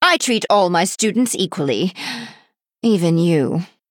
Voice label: Female High Elf Emote Flavor; Bacon Shop Bob

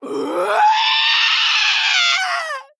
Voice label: Female Troll Death

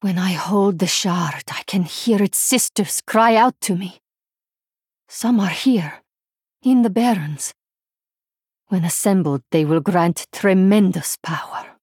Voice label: Female Draenei Ending

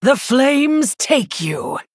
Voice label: Female Human TRIGGER Pyroblast

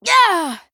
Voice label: Female Human Death

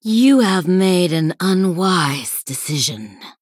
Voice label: Female Sanlayn Play

